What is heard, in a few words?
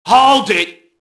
mobster alert; enemy; Max Payne